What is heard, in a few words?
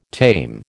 "tame", American